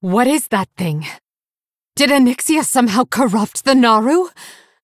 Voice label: Female Blood Elf; Valeera

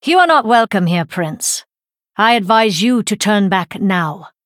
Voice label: Female Blood Elf In Game Introduction

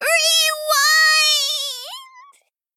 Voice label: Female Gnome Death

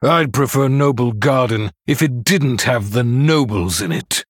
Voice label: Male Human Happy Noblegarden

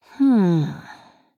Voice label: Female Draenei Thinking

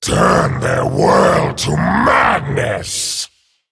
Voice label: Male Dragon Picked

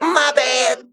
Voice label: Male Mech Emote Sorry

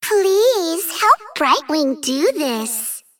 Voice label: Female Dragon Bark; Brightwing

